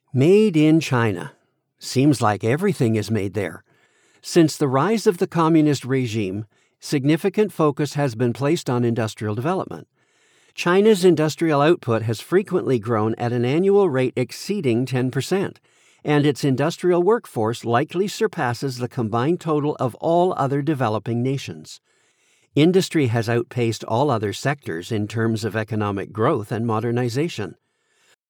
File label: Gen American; accents languages